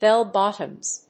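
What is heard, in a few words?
"bell-bottoms", English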